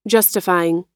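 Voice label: "justifying", US English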